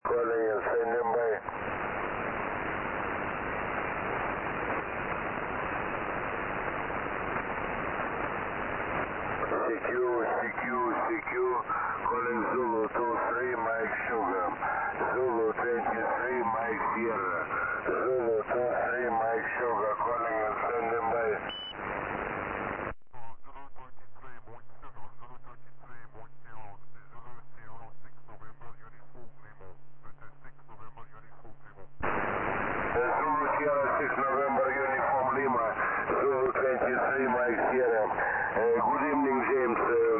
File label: QSO